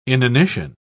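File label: "inanition", British English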